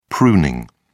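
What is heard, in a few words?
"pruning", British English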